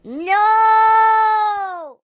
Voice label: "Nooo", Mobster; HedgewarsMobile